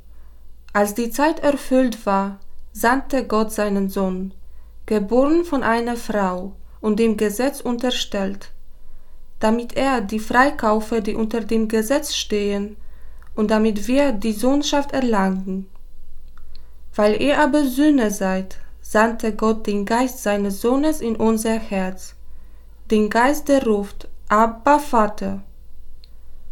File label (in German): Lesung; Neujahr